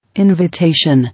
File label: "Invitation", English